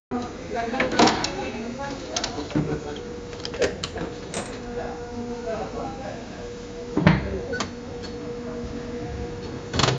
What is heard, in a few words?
the sound of an open plan, people talking